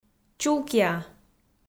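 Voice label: "чулкиа", Komi, female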